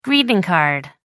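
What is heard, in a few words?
"greeting card", British English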